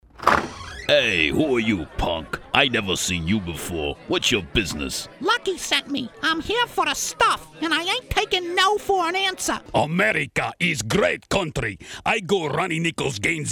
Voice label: extensive animated characters; character voices